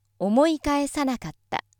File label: female